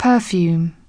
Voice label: "perfume", English